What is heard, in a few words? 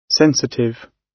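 "sensitive", British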